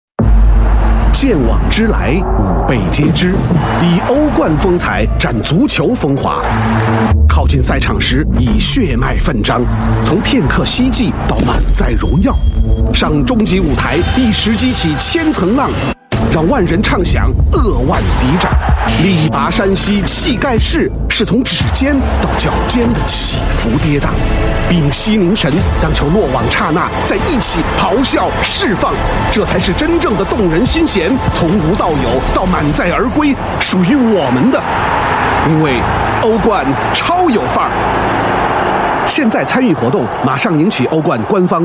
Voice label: chinese voice over male commercial